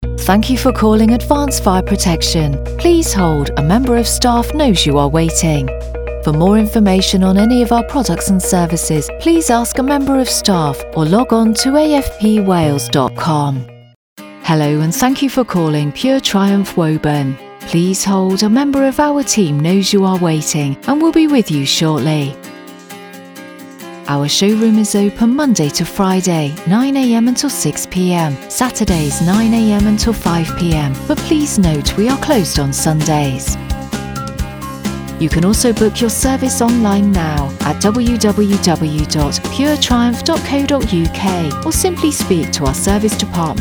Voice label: IVR Phone Prompt